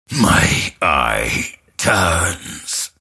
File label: attack pain; Grimstroke